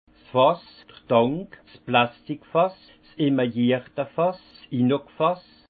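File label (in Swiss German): "fass", Haut Rhin; vin; cuve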